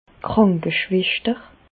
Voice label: "krankeschweschter", Bas Rhin; infirmière; medecine

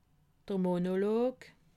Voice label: "monolog", alsace

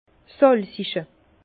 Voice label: "salzige", Bas Rhin

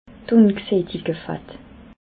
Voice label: "ungsattigte fatt", alsace

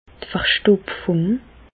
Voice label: "verstoptfung", Bas Rhin; medecine; constipation

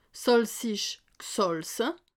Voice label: "salzich", alsace

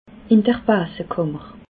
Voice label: "basekammer", alsace

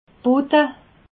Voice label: "butte", alsace

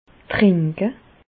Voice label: "trinke", Bas Rhin; medecine; boire

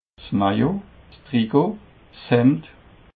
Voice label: "maillot", alsace

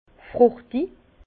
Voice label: "fruchtig", Bas Rhin; fruité; vin

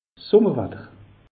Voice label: "summer watter", alsace; meteo

